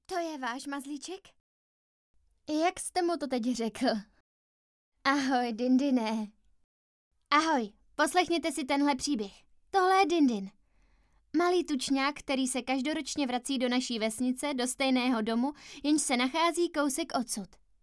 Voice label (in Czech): dabing